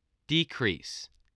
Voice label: "decrease", English